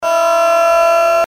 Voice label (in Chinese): 哦 bass; 音MAD; 蓝蓝路